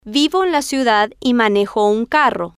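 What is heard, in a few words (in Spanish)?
vivo En Las Ciudad Y Manejo Un Caro, Spanish, female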